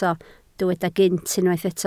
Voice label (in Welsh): "gynt", Welsh